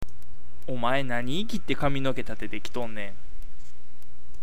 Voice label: ikiru, awaji ben

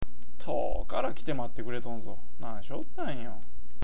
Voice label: "tookara", awaji ben

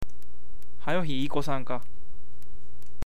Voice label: ikosu, awaji ben

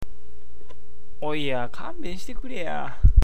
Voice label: oiya, awaji ben

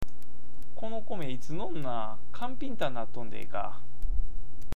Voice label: "kanpintan", awaji ben

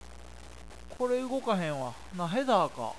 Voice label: "nahe", awaji ben

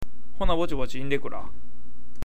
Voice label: indekura, awaji ben

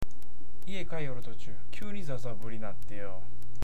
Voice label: "zazaburi", awaji ben

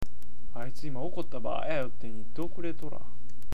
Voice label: "dokureru", awaji ben

